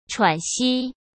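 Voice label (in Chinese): "喘息", Mandarin Chinese; hsk; chinese flashcards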